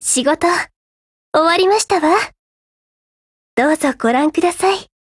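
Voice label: OPERATION OVER Japanese, Voice; GF Alarm; G36C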